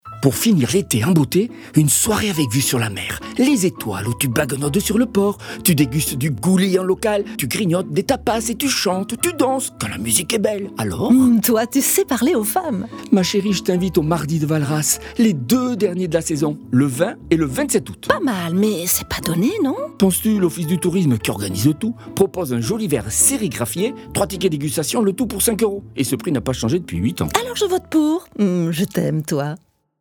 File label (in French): spot radio beziers; mardis de valras